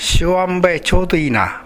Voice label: "yanbae", minamiyamagata